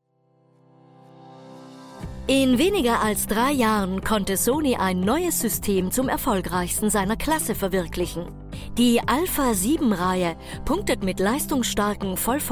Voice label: Lively Passionate energetic